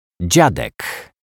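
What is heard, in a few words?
"dziadek", Polish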